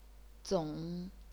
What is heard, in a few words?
"zong3", chinese pinyin